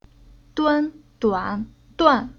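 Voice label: "Duan", Chinese syllable; Уроки фонетического блока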